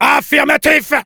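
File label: Soldier yes, French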